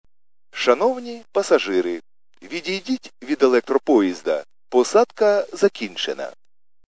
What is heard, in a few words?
khs st male, subway station